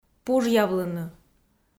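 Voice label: "пужъявлыны", Komi, female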